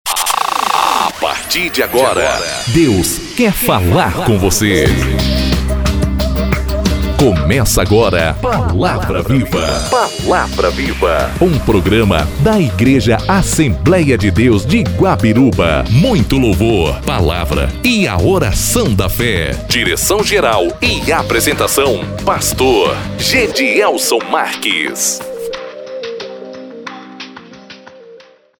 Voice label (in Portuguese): abertura gospel pronta